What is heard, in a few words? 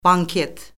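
"banket", Azerbaijani; Orfoqrafiya Lüğəti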